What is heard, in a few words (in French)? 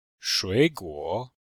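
shui guo, Chinese, Vocabulaire et phonétique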